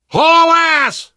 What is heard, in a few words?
emphatic run, survivor voice